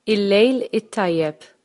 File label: goodnight maltese